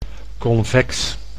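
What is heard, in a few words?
"convex", Dutch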